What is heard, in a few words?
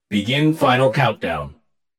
Computer Male Begin Final Countdown